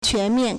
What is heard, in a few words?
"quan2 mian4", Chinese